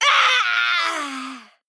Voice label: Female Goblin Death